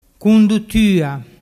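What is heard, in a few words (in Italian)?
"cunduttua", Vernazzese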